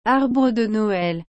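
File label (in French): "arbre de Noël", French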